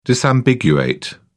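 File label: "disambiguate", British English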